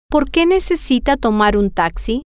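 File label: why do you need to take a taxi, spanish, female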